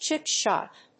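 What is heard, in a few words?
"chip shot", English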